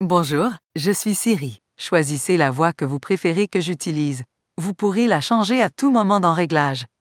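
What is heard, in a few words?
Canadian French; fr-CA; iOS Sounds; Buddy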